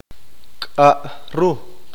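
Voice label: "qué", Q'eqchi'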